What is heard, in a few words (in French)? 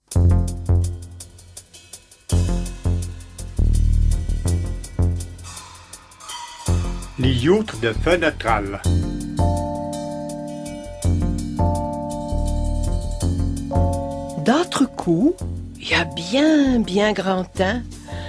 Patois; Les Myrtilles de Fenestral